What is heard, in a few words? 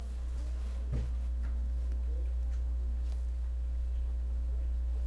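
"puppy", spoken word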